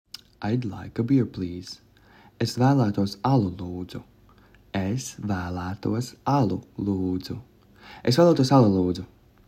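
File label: "I'd like a beer please", Latvian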